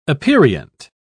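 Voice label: "aperient", British English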